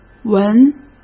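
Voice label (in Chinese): "文", Mandarin Chinese; hsk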